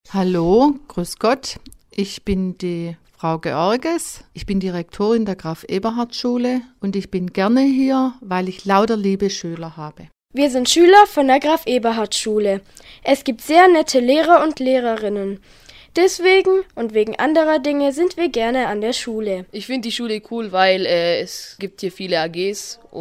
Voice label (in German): Werbespot